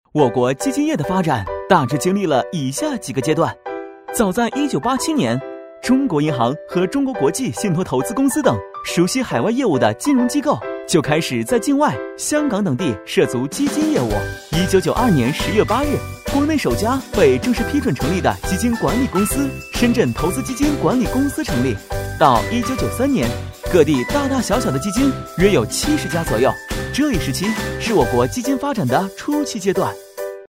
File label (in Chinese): 男 解说 轻松愉悦